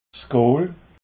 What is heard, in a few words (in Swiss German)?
"gool", alsace